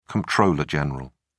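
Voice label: "comptroller general", English, British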